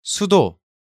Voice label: "Sudo", Korean